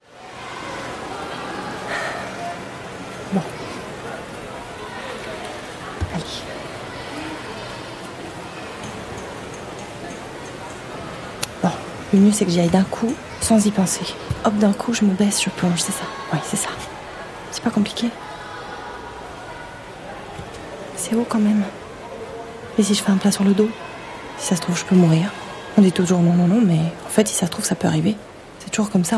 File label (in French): Voix off